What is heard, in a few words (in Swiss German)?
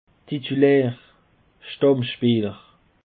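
"titulaer", Bas Rhin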